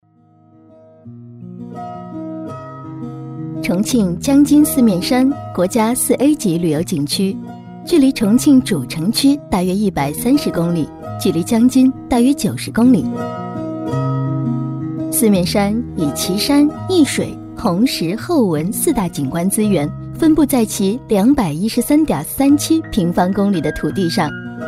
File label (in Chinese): 女 景点解说; 江津四面山; 国语女声配音